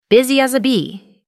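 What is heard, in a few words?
"busy bee", English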